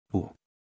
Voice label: Phoneme Male; Commonscript; Lynguistykon